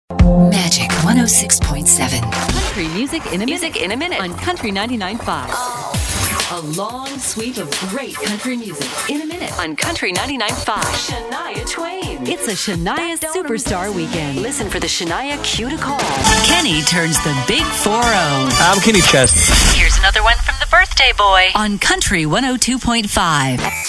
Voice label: IMAGING